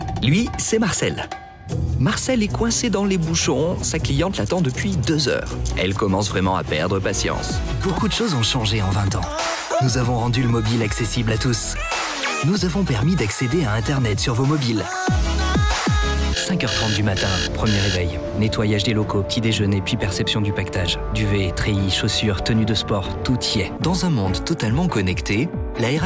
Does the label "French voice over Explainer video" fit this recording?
Yes